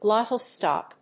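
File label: "glottal stop", English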